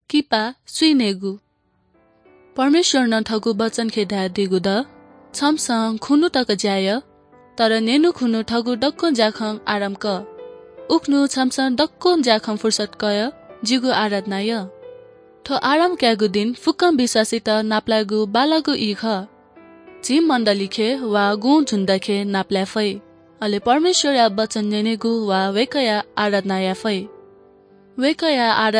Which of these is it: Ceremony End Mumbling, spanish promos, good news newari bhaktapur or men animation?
good news newari bhaktapur